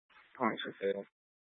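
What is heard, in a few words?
Sheriff Dispatch, Scanner audio recording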